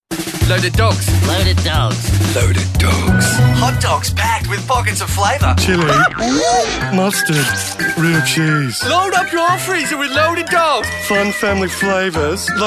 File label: Character